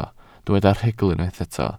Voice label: "rhugl", Welsh

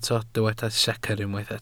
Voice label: "secr" Welsh

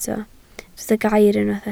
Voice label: "gair" Welsh

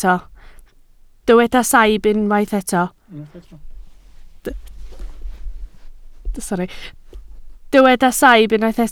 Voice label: "saib", Welsh

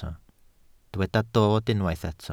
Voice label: "dod", Welsh

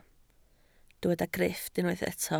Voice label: "grifft", Welsh

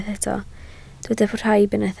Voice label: "rhaib", Welsh

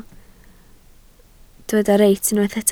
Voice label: "reit", Welsh

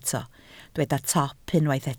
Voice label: "top", Welsh

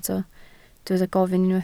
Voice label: "gofyn", Welsh

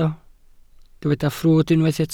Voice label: "ffrwd" Welsh

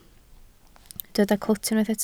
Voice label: "clwt", Welsh

